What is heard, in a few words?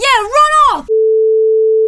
fight, female; kingpin; hiredgirl; orders